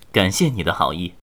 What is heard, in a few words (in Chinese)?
被治疗, BattleCry, 年轻的修士; ycrpg